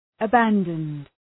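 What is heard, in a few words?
"abandoned", English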